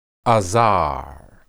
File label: "azar", Hebrew